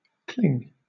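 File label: "cling", British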